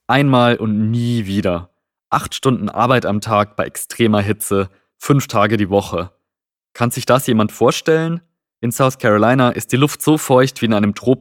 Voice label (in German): DE